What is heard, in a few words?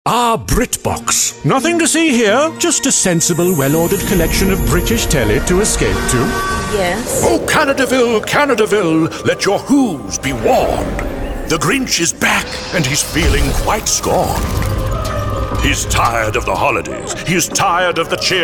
UK Straght VOICE ACTOR; Demo